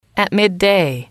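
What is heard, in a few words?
"midday", English, female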